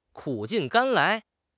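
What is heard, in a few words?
"苦尽甘来", Mandarin Chinese; HSK6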